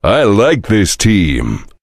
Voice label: cheer; svencoop; voice commands; Duke